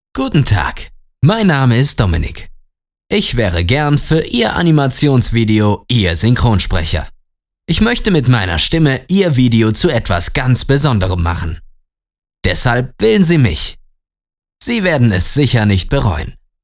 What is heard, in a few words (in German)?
Hochdeutsch